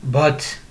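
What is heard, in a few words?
"but", Nigerian Pidgin, male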